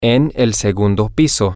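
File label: on the second floor, Spanish, male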